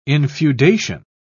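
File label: "infeudation", British English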